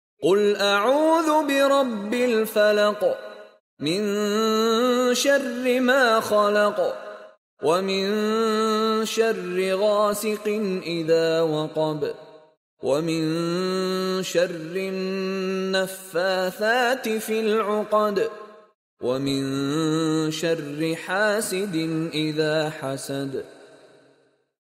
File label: ARABIC Recitation; Surah 113 Al Falaq